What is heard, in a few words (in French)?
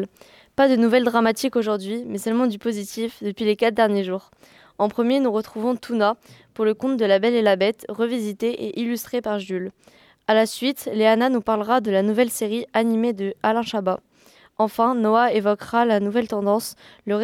Flash info radio